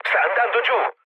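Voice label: Italian aircraft destroyed mood low, speech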